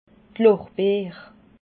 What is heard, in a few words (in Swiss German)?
"lorbeer", alsace